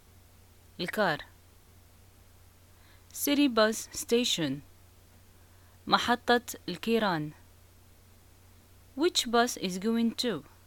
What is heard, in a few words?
souk bus, Arabic, North African, Moroccan